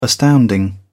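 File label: "astounding", British English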